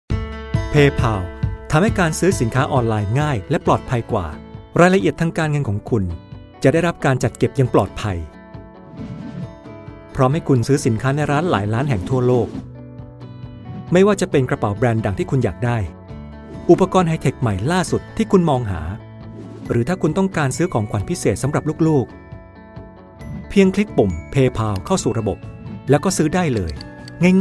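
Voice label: Thai informative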